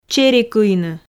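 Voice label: "чери кыйны", Komi, female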